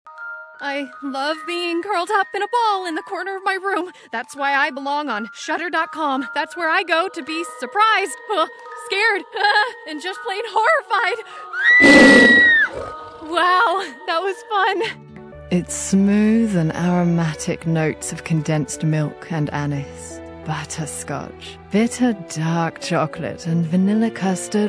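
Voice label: commercial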